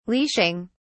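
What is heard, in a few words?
"leashing", English, British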